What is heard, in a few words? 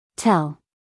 "tell" us female; everyone-can-use-english